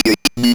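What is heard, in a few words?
ROBVOX, robot voice; E-Lab; X Static Goldmine 1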